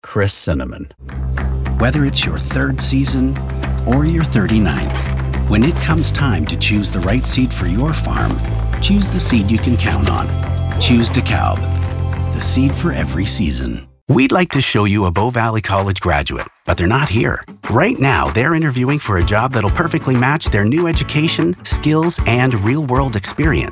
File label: Commercial